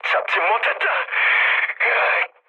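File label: Korean bomb failure mood med; speech; War Thunder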